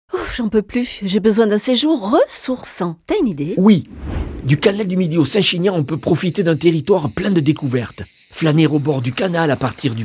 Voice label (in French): spot; canal du midi; france bleu herault